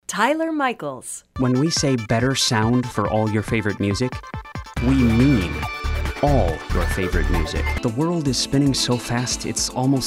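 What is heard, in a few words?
Commercial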